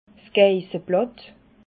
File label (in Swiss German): "geisseblatt", alsace